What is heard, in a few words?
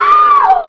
"oh", Voice